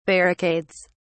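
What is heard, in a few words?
"barricades", British English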